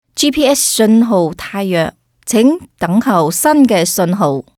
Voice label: kantonesisch telefon; sprecher; chinesisch

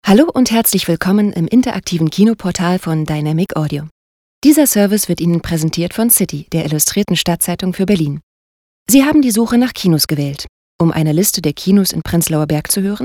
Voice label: telefon, deutsch frauen

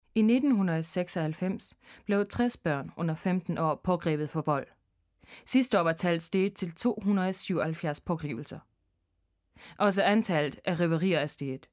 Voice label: lesung, daenisch

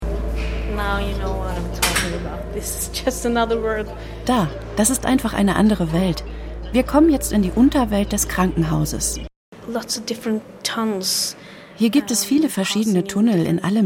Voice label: de voiceover, deutsch frauen; sprecher

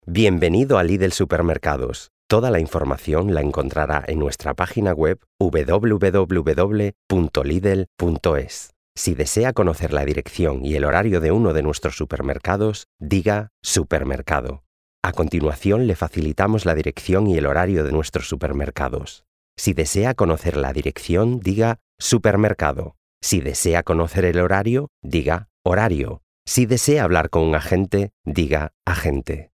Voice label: telefon, spanisch

